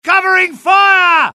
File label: suppress, dialogue UK; mohaa